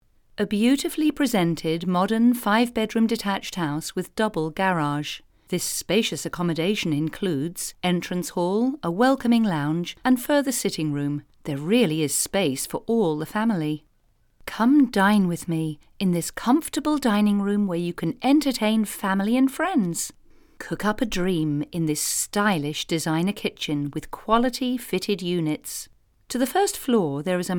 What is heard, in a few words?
voiceovers estate agent uk